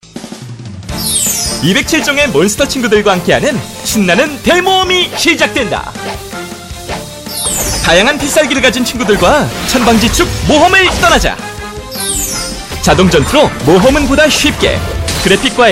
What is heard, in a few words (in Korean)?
광고 밝은 20대; 몬스터 길들이기